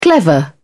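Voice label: "clever", British English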